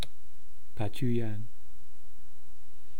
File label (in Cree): "pachuuyaan", eastcree